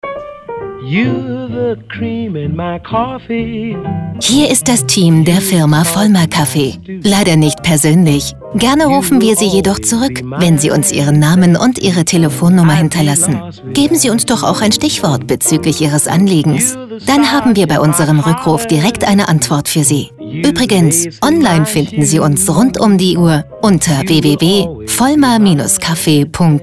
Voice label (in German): Kaffee Telefonansage; Vollmer; 1a-telefonansagen